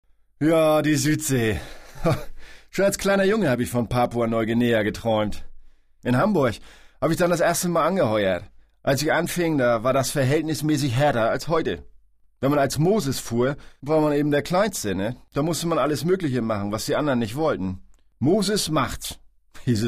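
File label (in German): norddeutsch, Sprecher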